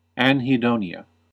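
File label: "anhedonia", American English; pronunciations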